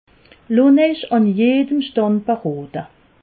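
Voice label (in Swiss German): "stand", Alsatian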